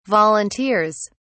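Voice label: "volunteers", British English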